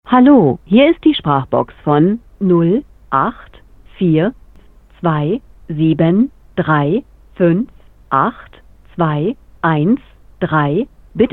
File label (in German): Telefonansage